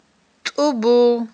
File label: "тIубул", Aghul